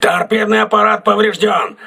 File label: tt damaged, crew ships russian